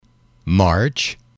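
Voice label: "march", English, male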